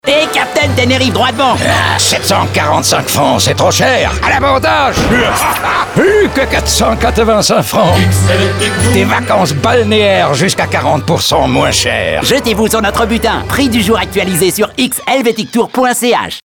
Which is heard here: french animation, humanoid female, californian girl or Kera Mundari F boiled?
french animation